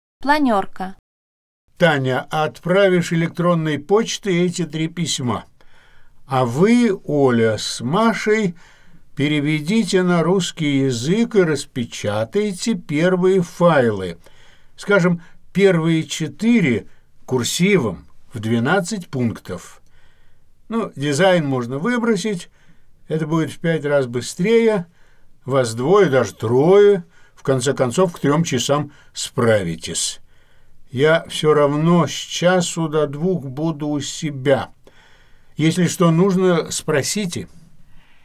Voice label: Conversation; 2006 RusseRevolution